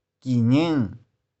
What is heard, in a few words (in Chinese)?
"ki2ning6", 玉環